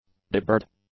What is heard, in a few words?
"deburred", english; adjectives